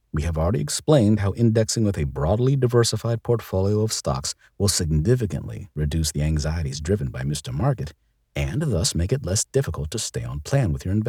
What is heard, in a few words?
english american explainer videos